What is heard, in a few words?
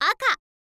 "aka", girl